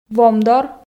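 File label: "вом дор", Komi, female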